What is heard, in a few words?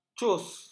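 "cus", Malay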